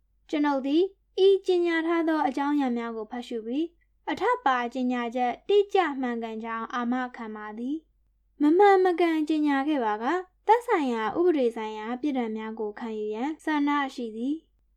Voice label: 缅甸女

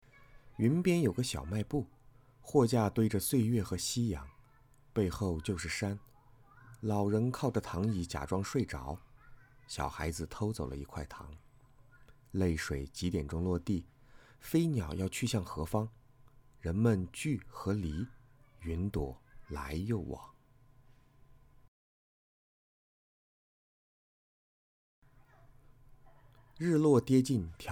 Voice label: 温柔配音

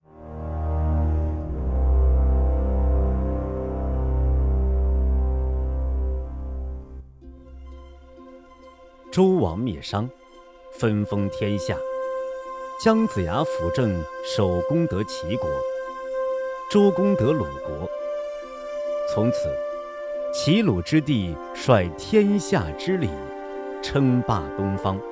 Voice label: 纪录片; 国家宝藏

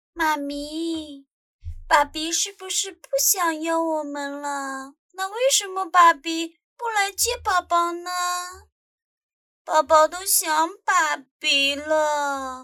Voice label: 质问妈咪

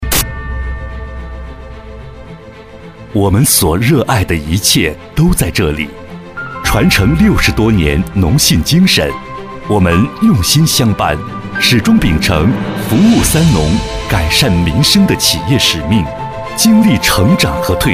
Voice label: 金融 现代 大气 专题

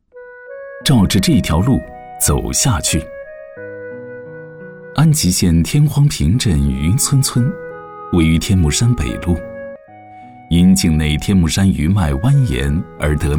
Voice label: 纪录片; 安吉余村

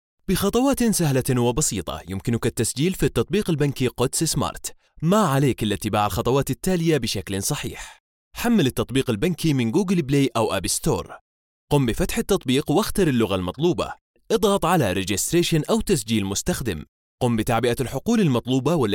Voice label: 阿拉伯语男

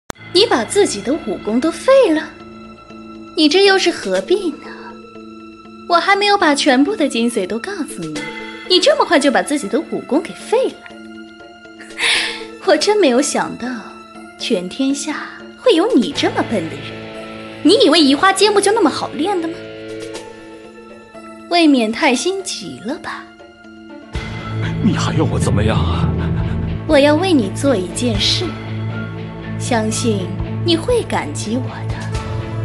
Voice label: 霸气御姐; 江玉燕

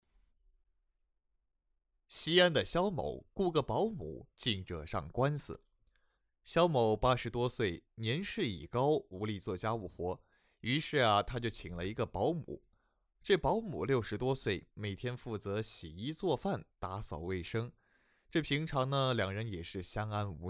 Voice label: 样音 缩混

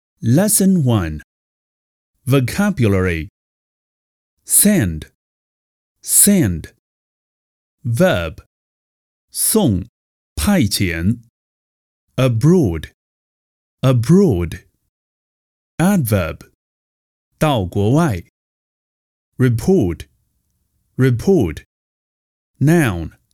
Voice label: 英文单词英式

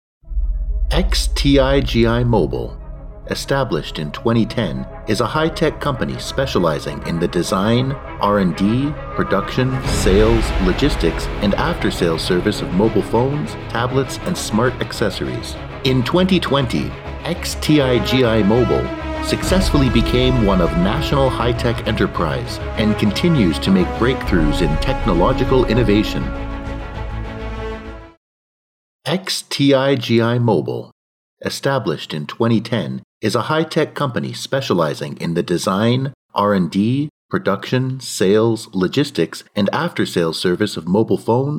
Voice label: 外籍母语男大气企业

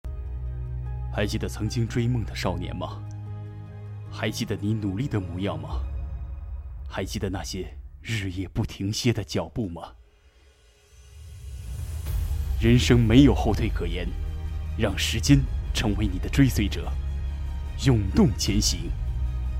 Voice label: 男声素人成熟

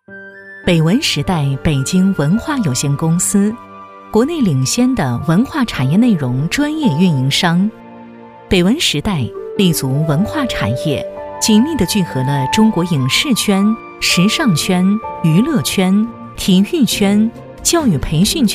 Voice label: 纪录片; 北文时代

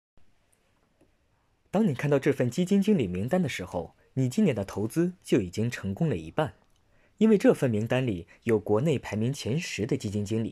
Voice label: 广告语未修饰

